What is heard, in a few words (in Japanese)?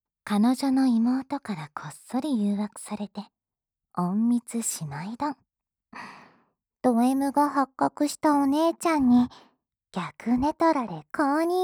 タイトルコール